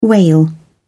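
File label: "wail", British English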